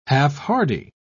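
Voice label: "half-hardy", British English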